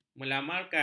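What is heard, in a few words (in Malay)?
"melamarkan", Malay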